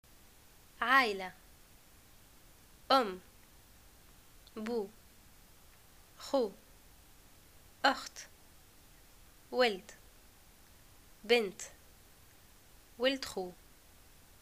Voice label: family, arabic